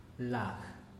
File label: "lag", Teotitlan; hoja